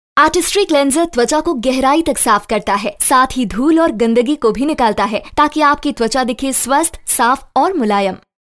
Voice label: hindi female voiceover locutora india; locutora de la India